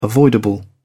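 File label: "avoidable", British English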